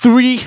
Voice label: phrase male rap; Vengeance Samples